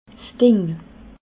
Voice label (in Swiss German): "ding", alsace